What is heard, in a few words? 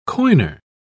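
"coiner", British English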